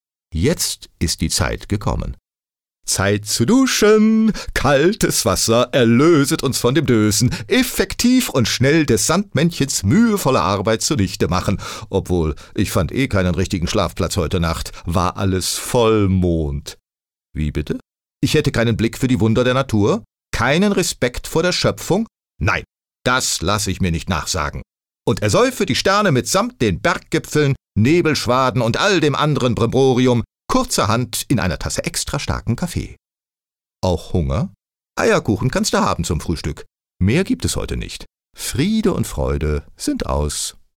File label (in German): Schauspiel Variabel